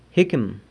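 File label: "jicqum", jicama, San Lucas Quiaviní Zapotec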